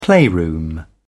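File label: "playroom", British English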